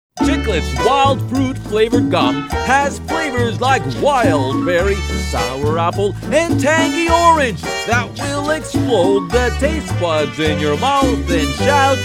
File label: Commercial Animation Audio English; Commercial/Animated Sample; (1 min)